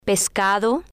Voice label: "pescado", Spanish